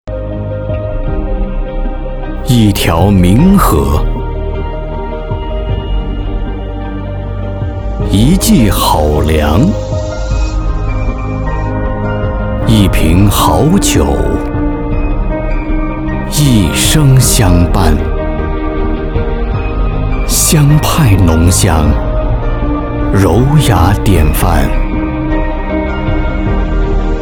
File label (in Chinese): 产品时尚大气宣传